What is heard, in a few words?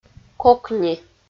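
"кокни", Komi, female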